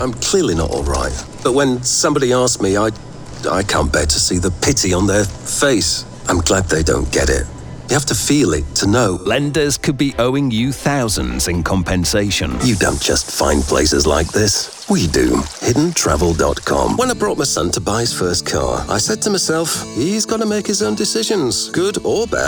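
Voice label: Male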